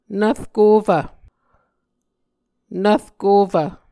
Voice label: "nvrkofv", Muscogee

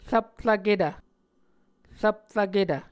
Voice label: "rvprvketv", Muscogee

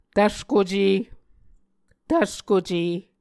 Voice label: "tvskoce", muscogee

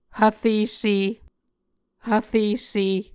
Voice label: "hvresse", Muscogee

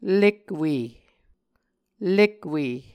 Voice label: "lekwe", Muscogee

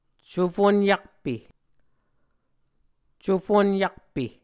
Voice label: "cufunyakpe", Muscogee

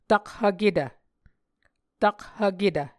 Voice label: "takhvketv", Muscogee

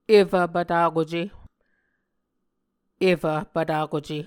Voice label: "efv pvtakuce", muscogee

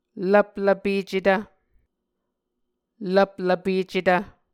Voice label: "lvplvpecetv", Muscogee

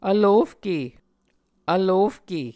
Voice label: "vlofke", Muscogee

